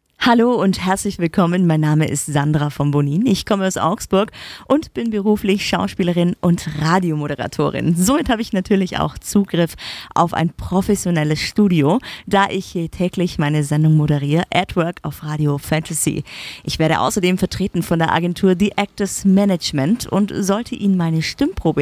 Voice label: About My Voice German